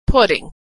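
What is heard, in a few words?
"putting", American English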